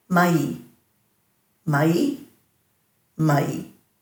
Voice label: "mayi", Dhurga